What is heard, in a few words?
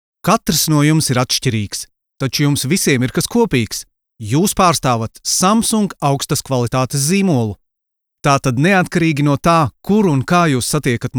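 Latvian, male, commercial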